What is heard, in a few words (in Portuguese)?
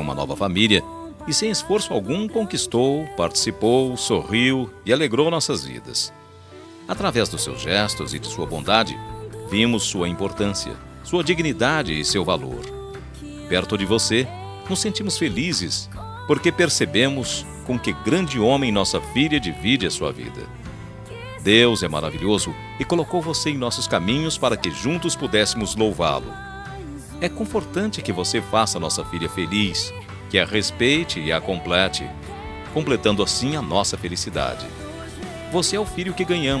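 Aniversário Evangélico Genro Masculino, telemensagem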